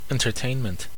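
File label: "entertainment", US English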